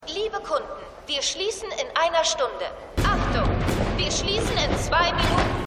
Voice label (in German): Durchsage, Stimmproben